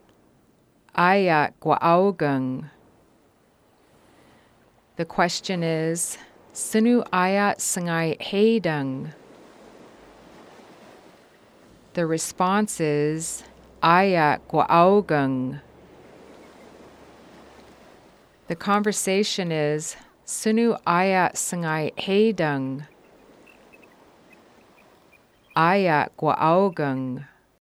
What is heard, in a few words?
Haida Raining, gwa'áawgang (it's raining); Haida 7 Raining; (It is today).